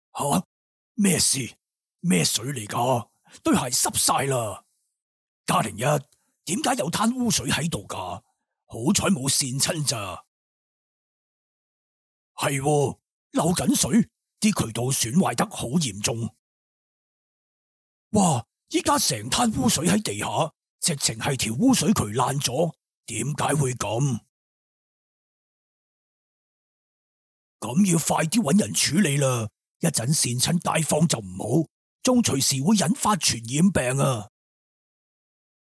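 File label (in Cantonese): Cantonese, Characters; voice demos; GoLocalise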